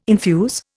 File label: "infuse", Indian